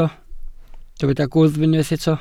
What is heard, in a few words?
"gwddf", Welsh